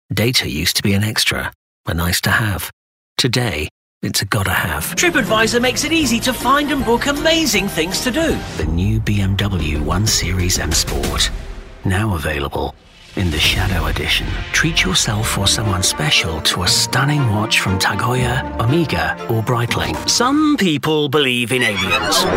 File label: Commercial